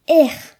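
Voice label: "snow", Breton; gcompris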